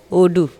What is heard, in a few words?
"oDu", Andamanese; mud, white